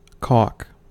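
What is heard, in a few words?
"caulk", US English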